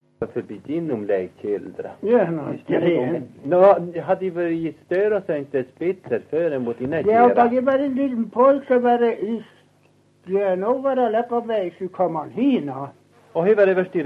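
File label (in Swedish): Överkalix Norrbotten, dialekter, Svenska sagor; Folkminnen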